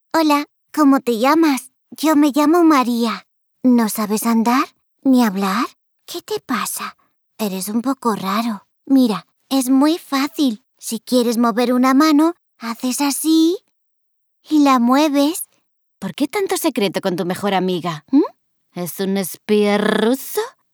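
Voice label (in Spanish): otros España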